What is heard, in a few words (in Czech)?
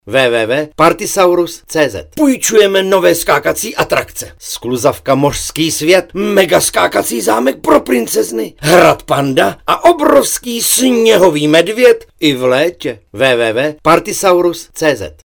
spot radio; nove atrakce 2018; upir krejci